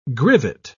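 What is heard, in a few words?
"grivet", British English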